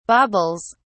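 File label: "baubles", British English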